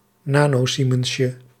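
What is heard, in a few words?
"nanosiemensje", Dutch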